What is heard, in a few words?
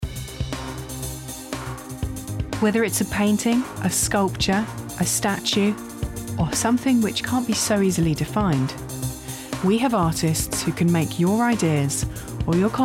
Corporate Video